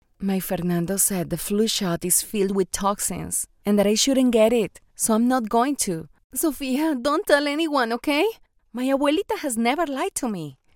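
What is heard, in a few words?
Latin American Spanish, accented English; GoLocalise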